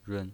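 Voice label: "run", Mandarin Chinese pinyin voice, neutral tone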